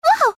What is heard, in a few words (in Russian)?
ispugannoe vau; iz igry mario